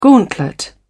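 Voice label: "gauntlet", British English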